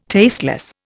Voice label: "tasteless", Indian

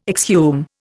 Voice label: "exhume", Indian

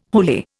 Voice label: "holy", Indian

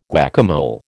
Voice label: "guacamole", American

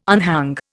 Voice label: "unhang", Indian

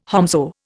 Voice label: "whomsoe", Indian

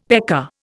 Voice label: "pecker", Indian; Dictionary

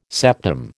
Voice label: "septum", American

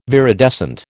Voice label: "viridescent", American

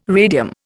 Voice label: "radium", Indian

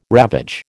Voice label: "ravage", American

